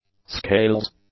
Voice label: "scales", English; nouns